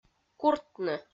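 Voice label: "куртны", Komi, female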